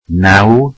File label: welsh nine